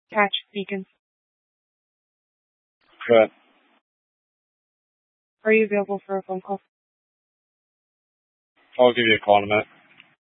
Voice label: Police Dispatch, scanner